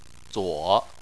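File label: "zuo" third tone, chinese; Chinese Surnames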